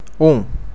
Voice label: "um" Portuguese

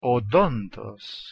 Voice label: "odontos" Ancient Greek

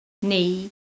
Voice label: "nuy", Manx

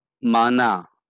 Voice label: "mana" Bengali

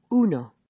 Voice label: "uno", American Spanish

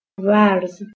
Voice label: "varz", Persian, F

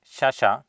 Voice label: "shasha", Sanskrit

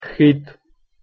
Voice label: "khid", Ossetian

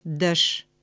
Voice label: "dash" Iron

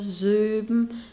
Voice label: "zoebm", East Frisian

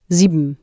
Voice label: "sieben", German

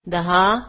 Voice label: "ten" Marathi

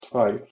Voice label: "tvær" Icelandic

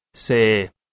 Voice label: "see" Balochi, 3

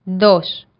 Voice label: "dos", Spanish

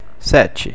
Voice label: "sete", Portuguese

